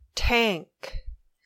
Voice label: "tank", English

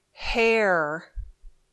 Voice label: "hair", English

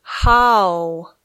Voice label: "how", English

